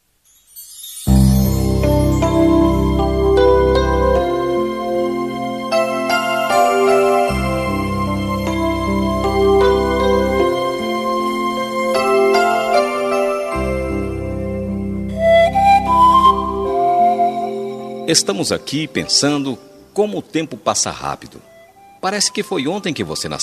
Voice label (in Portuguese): ANIV FILHO PL MASC, telemensagem